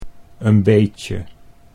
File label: "een beetje", Dutch